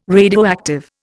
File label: "radioactive", Indian